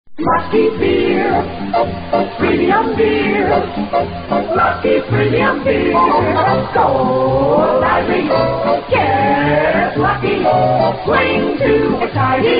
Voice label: vintage commercials beer; lucky